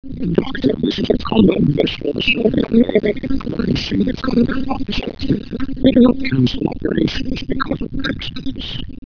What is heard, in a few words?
nocancel lpc